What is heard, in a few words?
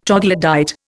"troglodyte", Indian